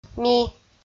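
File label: "ми" Komi, female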